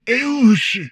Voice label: humanoid portrait male; Stellaris; humanoids